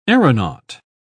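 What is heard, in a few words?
"aeronaut", English, uk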